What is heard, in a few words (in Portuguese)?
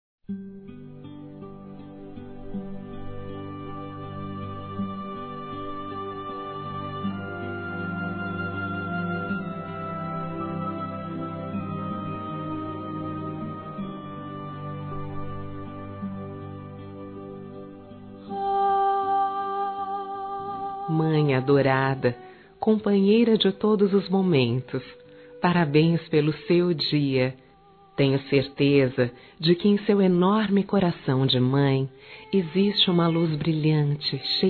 DIA DAS MÃES GERAL F; Telemensagens 2017